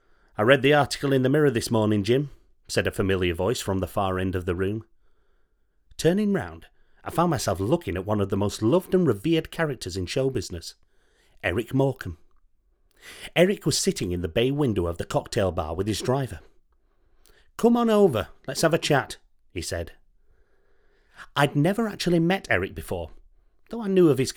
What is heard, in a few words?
Audiobook